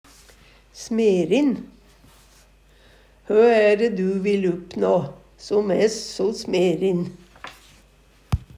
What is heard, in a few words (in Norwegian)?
"smerin", Numedalsmål; Eksempel på bruk Hø æ're du vil uppnå som er so smerin?